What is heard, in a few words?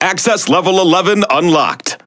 Speech Access Level, EN; World Of Warships